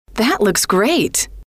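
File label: that looks great, female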